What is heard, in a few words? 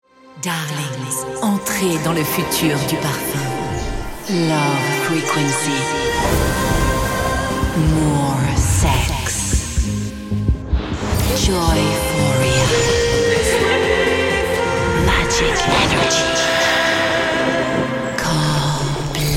french promos; native French VO artist